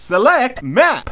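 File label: announcer, Japanese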